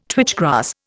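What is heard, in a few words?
"twitch grass", Indian English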